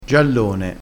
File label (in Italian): "giallone", crespinese